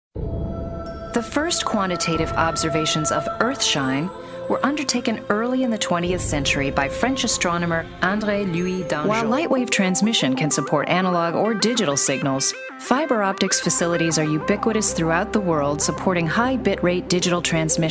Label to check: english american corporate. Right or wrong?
Right